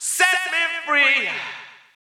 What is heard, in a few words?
Male FX Vocals; Houseworx